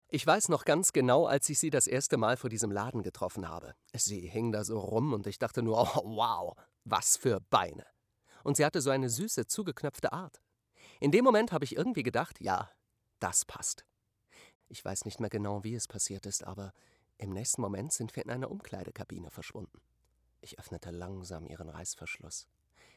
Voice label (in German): Sprecher Werbung Jeans